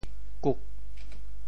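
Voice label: "kuk8", Teochew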